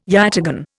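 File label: "yataghan", Indian English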